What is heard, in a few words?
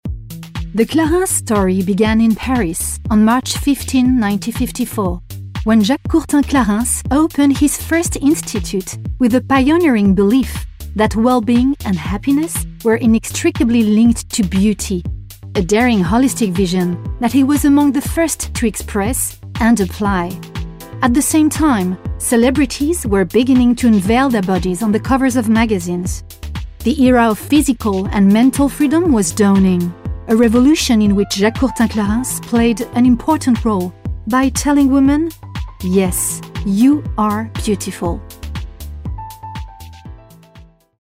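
French, English accent; GoLocalise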